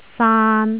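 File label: "saan3", Cantonese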